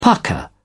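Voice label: "pukka", British English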